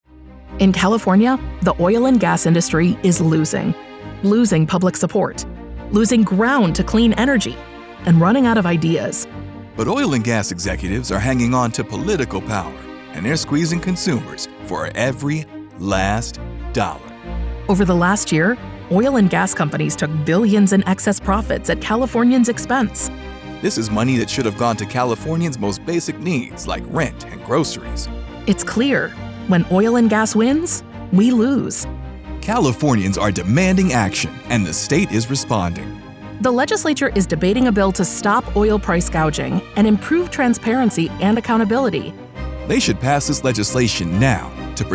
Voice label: Radio Ad; Payback